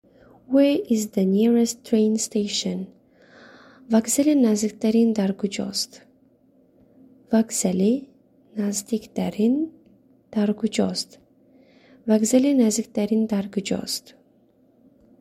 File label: Where is the nearset train station, tajik